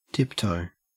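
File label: "tiptoe", Australian English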